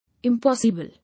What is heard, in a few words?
"Impossible", English